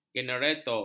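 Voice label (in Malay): "generator", Malay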